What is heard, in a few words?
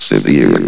"severe", vox